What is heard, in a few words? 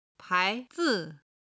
"pai2 zi5", chinese